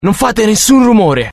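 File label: curious, Italian; dialogue; mohaa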